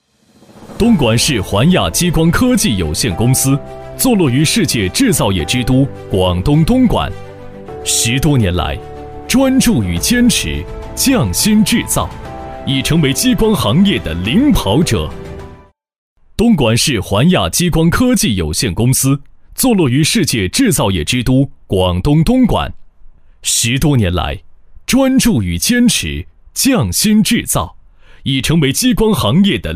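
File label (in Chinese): 男【宣传片】企业宣传; 环亚企业宣传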